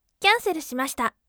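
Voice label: "cancel shimashita", bright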